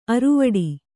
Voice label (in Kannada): "ಅರವುಡಿ", Kannada